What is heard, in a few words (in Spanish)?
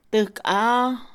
puerta, Mixe, Mujer; Casa; INALI